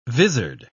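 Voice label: "visard", English, British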